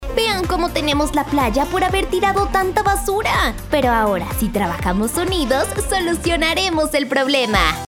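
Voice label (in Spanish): doblaje, Mexico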